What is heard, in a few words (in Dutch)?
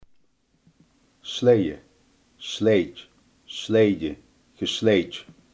"sleeen", Limburgs